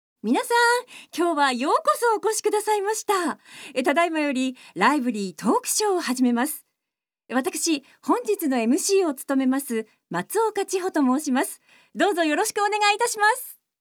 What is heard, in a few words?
Narration